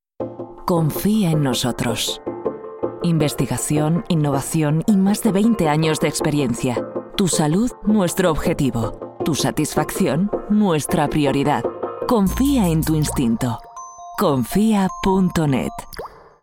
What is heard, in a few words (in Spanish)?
female corporativo